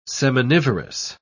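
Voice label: "seminivorous", English, British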